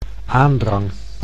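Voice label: "aandrang", Dutch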